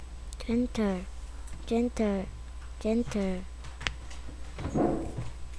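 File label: "gentle", spoken word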